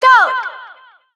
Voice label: Announcer Call; Mario Sonic Rio 2016